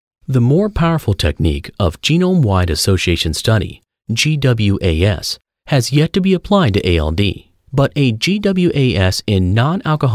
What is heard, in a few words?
Medical Narration